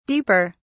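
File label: "deeper", British English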